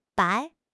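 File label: "bai3", Chinese; HSK; HSK2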